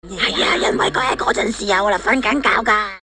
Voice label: asian comic voice

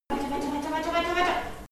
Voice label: birth nurse encouraging

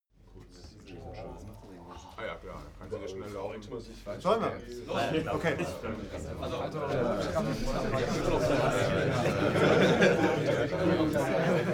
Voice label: male crowd chatting calmly

